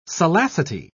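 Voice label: "salacity", British English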